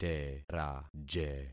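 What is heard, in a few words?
"teraje", concatenated syllables; Affixing experiment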